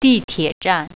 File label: subway station, mandarin